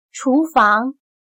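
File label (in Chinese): "厨房" Mandarin Chinese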